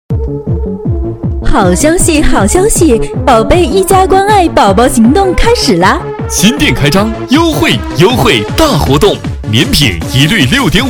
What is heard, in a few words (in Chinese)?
男女混音; 好消息